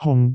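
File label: "hung4", Cantonese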